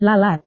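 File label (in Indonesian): "lalat", Indonesian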